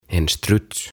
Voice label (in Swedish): "En struts" "Strutsi"